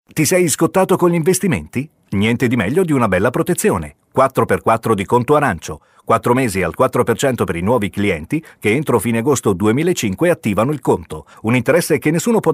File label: spot; contoarancio